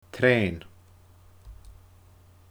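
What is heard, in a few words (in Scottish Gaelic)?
"treean", Scottish Gaelic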